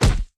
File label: bully